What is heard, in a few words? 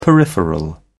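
"peripheral", British English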